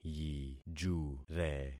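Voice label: "yijure", concatenated syllables; Affixing experiment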